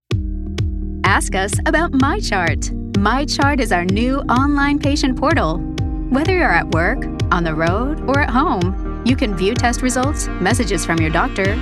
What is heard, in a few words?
On Hold Medical; Parkland Health Center